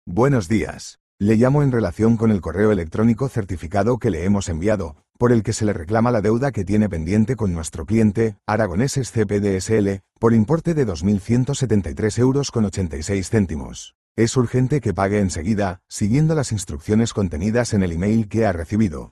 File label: audio call